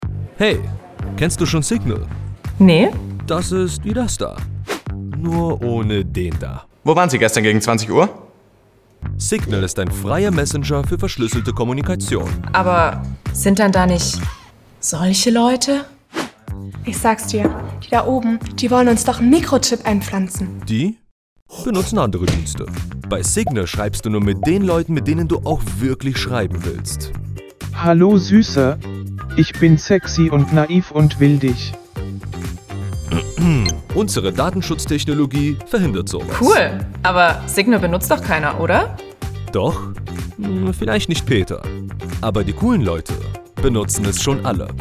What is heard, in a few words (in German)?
Werbung